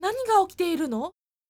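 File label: female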